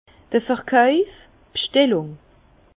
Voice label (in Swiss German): "verkauf", Bas Rhin; vin; vente commande